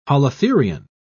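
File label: "holothurian", British English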